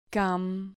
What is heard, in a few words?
"cam", Spanish